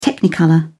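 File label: "technicolor", British English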